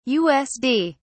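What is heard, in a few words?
"usd", British English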